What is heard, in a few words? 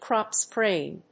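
"crop-spraying", English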